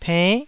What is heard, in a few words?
"penn9", Taiwanese